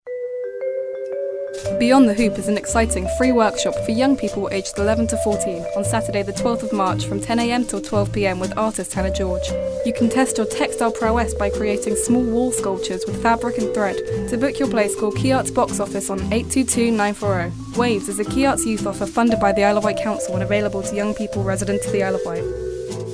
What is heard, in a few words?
promo